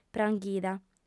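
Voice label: "pranghida", female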